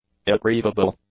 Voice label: "irretrievable", english; adjectives